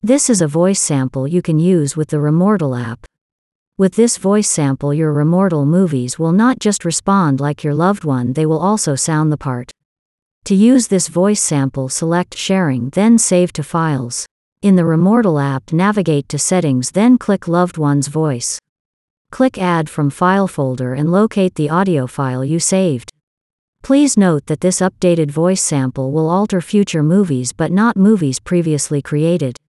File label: us female